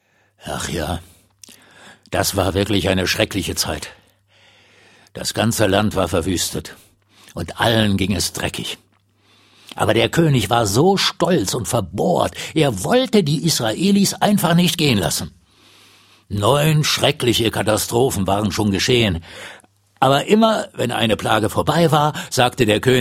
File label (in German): Erzaehler